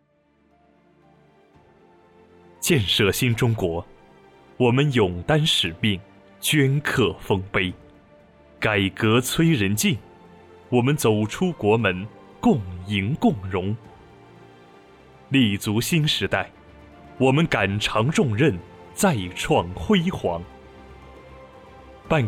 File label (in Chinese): 企业专题片